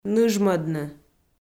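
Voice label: "ныжмӧдны", Komi, female; audio dict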